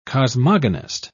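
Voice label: "cosmogonist", British English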